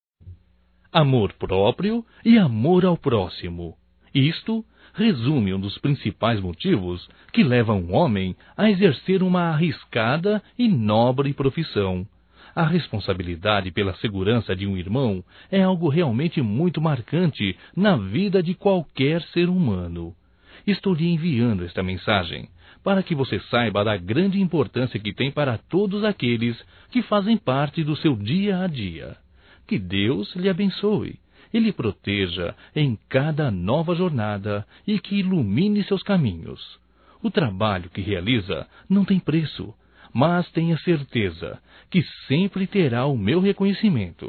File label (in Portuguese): HOMENAGEM AO POLICIAL CIVIL, masc; Homenagens; Telemensagens 2017